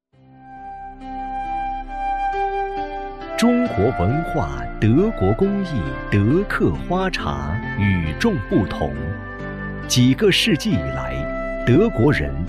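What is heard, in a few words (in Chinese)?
男 产品介绍 花茶介绍, 普通话; 德客花茶介绍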